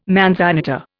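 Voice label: "manzanita", Indian English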